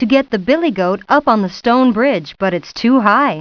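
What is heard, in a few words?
too high story narration; Putt Time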